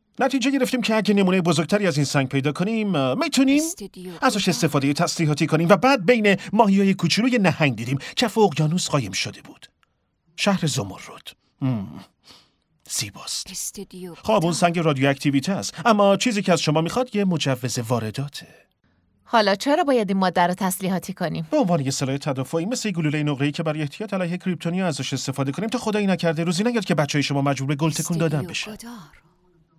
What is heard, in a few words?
dub